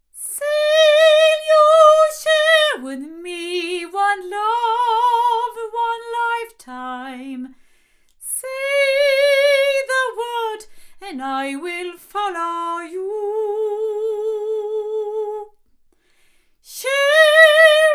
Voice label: MT47 omni female; TSM